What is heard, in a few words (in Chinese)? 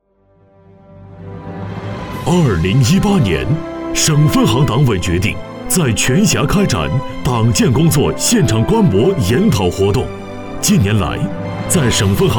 男-专题; 农发行果洛州分行